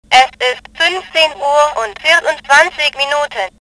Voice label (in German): Zeitansage